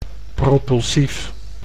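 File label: "propulsief", Dutch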